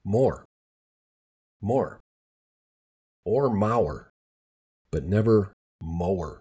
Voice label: mower, minnesota pronunciation guide; MORE or MAU-er; (not like lawnmower); Southern MN